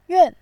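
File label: "yuan", Mandarin Chinese, tone 4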